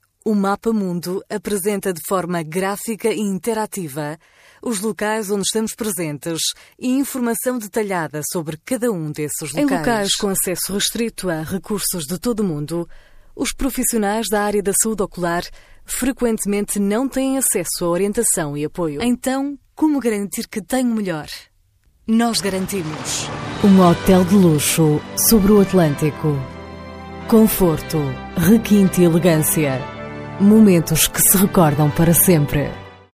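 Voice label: portuguese female narration; voice demos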